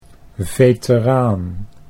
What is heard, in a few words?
"veteraan", Dutch